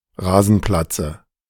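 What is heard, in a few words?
German "Rasenplatze"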